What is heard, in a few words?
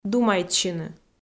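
"думайтсьыны", Komi, female; kpv audio dict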